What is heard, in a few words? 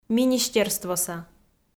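"министерствоса" Komi, female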